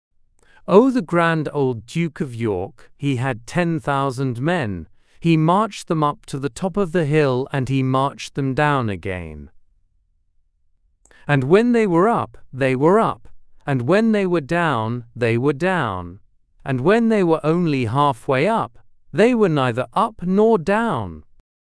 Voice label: male brit; The Grand Old Duke of York